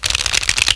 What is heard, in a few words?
READ